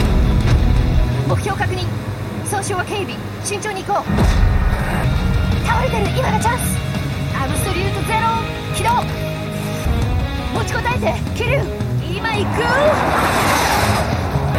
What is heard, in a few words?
japanese tv shows